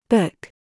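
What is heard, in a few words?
"book", English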